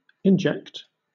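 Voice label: "inject", British English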